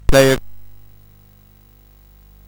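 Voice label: Bouncy Balls speech, MOS 8580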